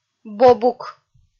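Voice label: "бобук", Komi, female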